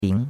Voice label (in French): "bing2", chinois; Cours-de-Chinois